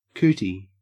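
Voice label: "cootie", Australian English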